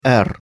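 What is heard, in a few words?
"р", Russian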